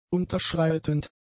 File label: "unterschreitend", German; adjectives